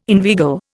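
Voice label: "inveigle", Indian English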